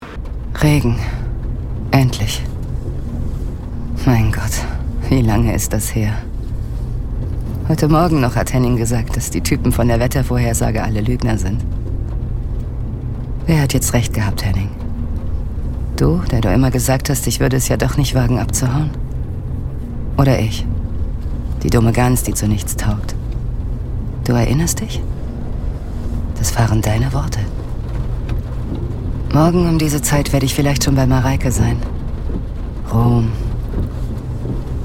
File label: German audiobooks